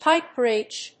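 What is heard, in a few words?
"pipe bridge", English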